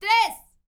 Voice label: MEX FEM, MEXICAN; ILIO; Vocal Planet VOL-5